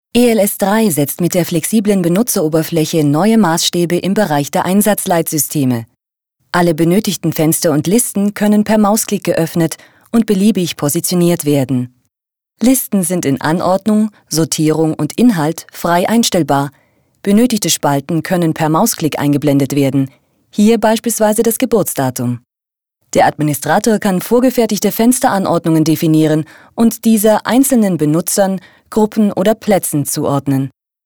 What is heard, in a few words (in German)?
Erklärfilm